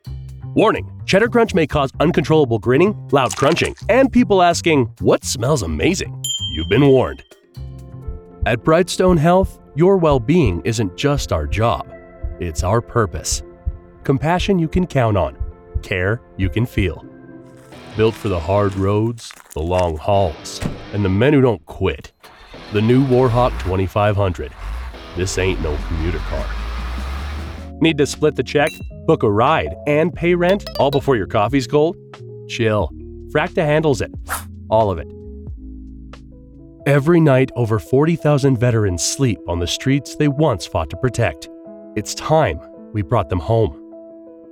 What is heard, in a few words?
COMMERCIAL VO